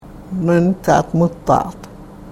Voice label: "nanittaat muttaat", karuk

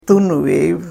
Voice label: "tunviiv", karuk

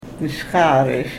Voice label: karuk

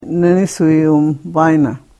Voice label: karuk

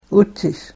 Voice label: "uchish", Karuk

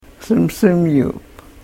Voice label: "simsimyuup", karuk

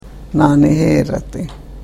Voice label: karuk

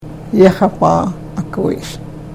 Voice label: karuk

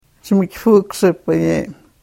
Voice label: karuk

